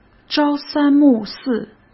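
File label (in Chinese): "朝三暮四", Mandarin Chinese; chinese-flashcards; hsk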